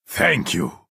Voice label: Nord male thank you